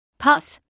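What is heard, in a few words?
"pus", British English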